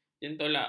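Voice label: "jentolak", Malay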